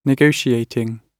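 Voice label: "negotiating", British English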